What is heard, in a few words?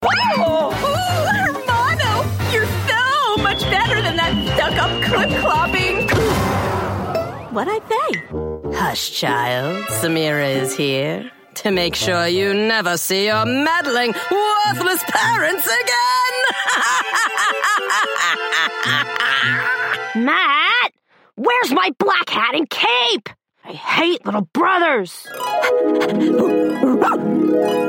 voiceover animation; new york